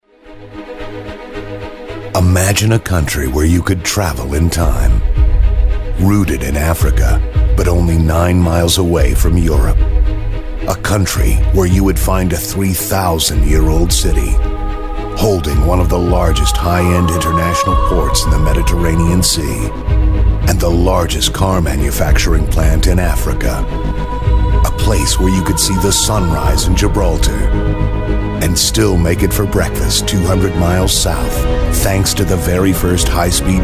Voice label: Corporate

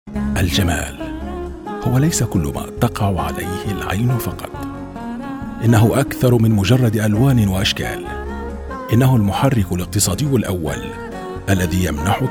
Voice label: Ad; Ford

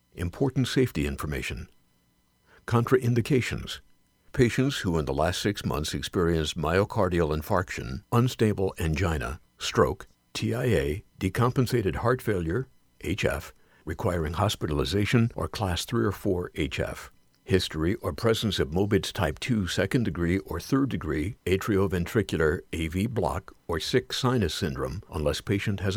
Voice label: Medical ISI

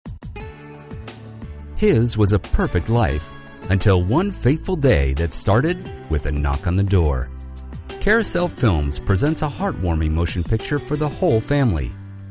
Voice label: movie trailer

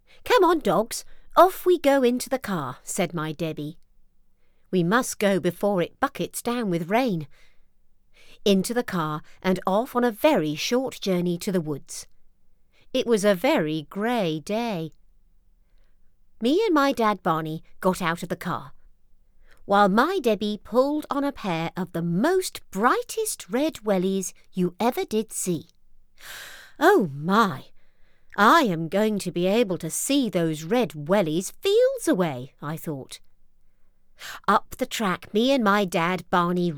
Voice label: Example of childrens story

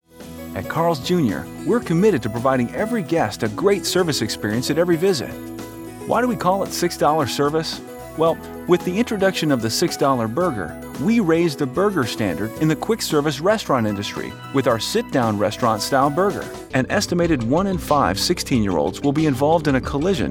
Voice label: Non Broadcast Voice Over

